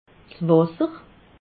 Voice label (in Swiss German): "wasser", alsace